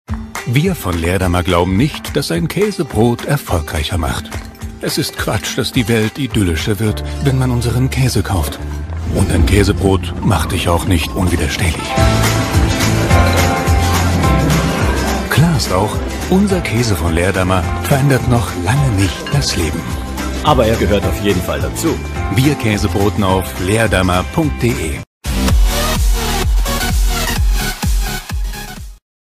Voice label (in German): Freundlich